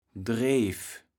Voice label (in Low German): "Dreef", kaevels platt